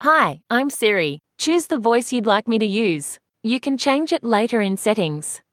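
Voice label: Australian English; iOS Sounds; catherine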